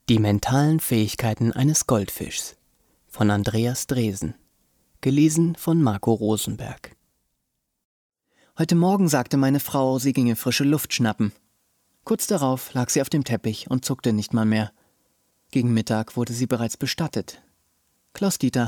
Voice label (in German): Hoerbuch lustig